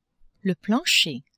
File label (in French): "plancher", French; maison